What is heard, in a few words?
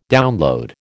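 "download", American English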